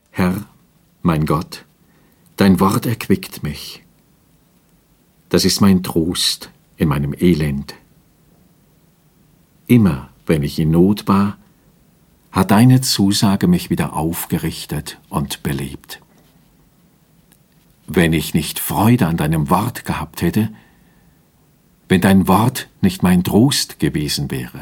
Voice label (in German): Lesung